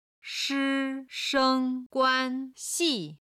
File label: "shi1sheng1guan1xi4", chinese